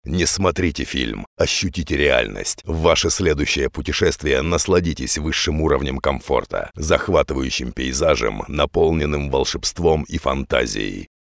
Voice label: russian male voiceover locutor ruso

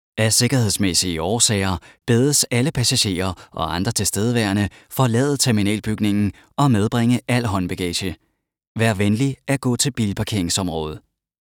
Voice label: danish locutor danes

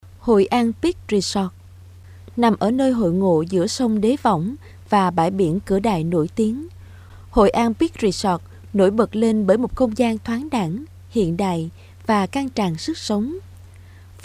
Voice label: vietnamese female voiceover locutora vietnam

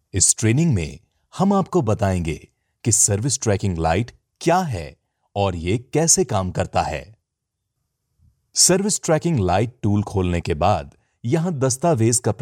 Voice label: hindi voiceover locutor india; locutor de la India

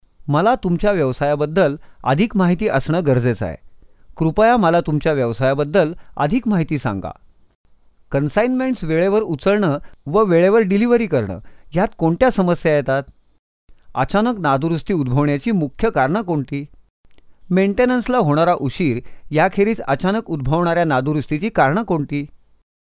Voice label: marathi voiceover locutor marathi; locutor indio de dialecto Marathi

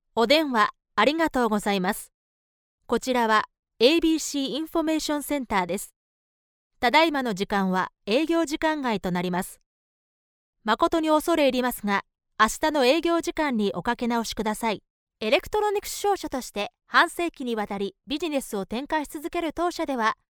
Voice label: locutora japonesa japanese female voice

